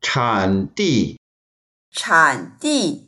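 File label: "chan3di4", Mandarin Chinese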